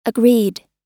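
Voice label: "agreed", American English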